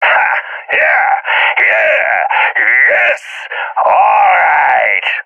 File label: taunt, balkan; balkan epic